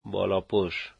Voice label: "coat", dari